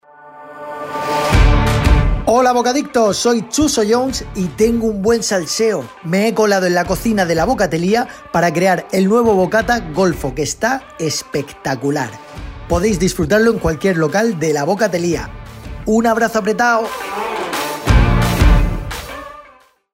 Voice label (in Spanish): Locucion; Bocata Golfo Xuso